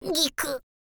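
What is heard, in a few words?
"giku", Japanese, girl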